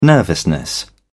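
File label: "nervousness", British English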